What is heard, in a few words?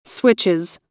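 "switches", American English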